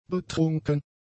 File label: "betrunken", German; adjectives